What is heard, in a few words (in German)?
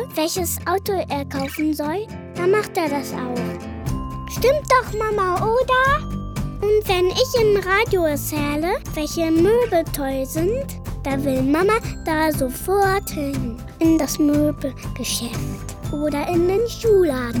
Werbung Kinderstimmen